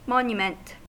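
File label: "monument", American English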